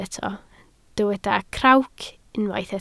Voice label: "crawc", Welsh